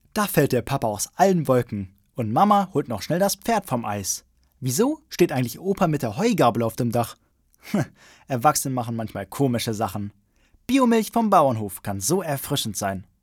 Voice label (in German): jungen; Biomilch